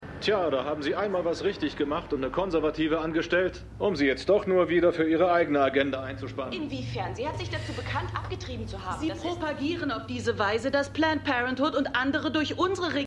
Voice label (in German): Diskutant M F F; The Morning Show